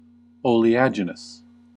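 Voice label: "oleaginous", American English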